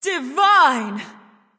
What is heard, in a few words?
divine, Announcer Killstreak; cstrike; Nox